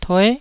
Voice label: "tue9", taiwanese